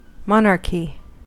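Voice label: "monarchy", US English; pronunciations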